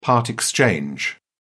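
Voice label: "part exchange", British English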